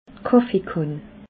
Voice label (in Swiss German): "kaffeekann", alsace